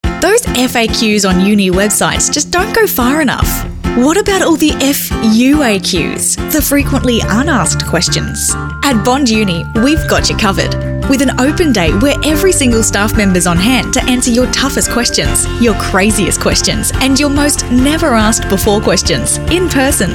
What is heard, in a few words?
female talent corporate